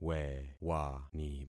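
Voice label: "wewani", concatenated syllables; Affixing experiment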